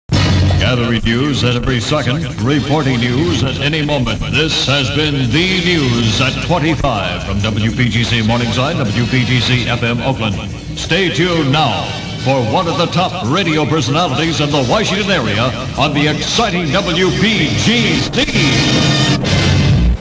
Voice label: sweeper news close stay tuned now